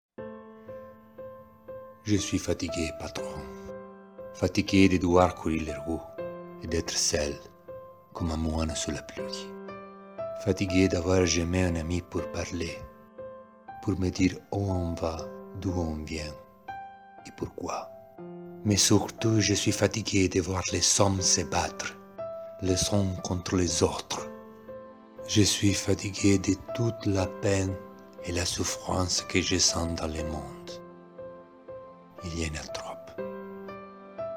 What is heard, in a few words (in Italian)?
Promo voce e MUSICA Francese